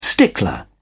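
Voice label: "stickler", British English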